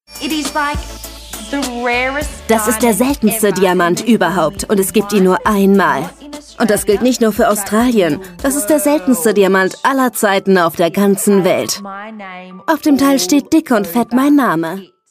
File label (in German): Voice Over schwungvoll lebhaft; LOFT VOICES